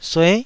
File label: "sue9", taiwanese Syllables